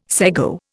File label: "sego", Indian